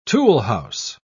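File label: "toolhouse", British English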